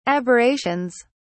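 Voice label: "aberrations", British English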